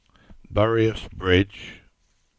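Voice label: English; Buryas Bridge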